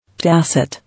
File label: "Tacit", English